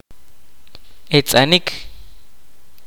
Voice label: "To play", kaqchikel